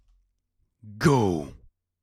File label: Announcer